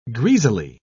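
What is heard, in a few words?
"greasily", British English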